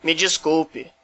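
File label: sorry, Brazilian Portuguese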